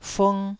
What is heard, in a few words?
"fung1", Taiwanese syllable